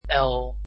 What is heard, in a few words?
"l", Dutch alphabet